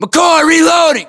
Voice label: inform reloading, us